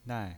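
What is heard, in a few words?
"nai", pinyin voice, Mandarin Chinese, fourth tone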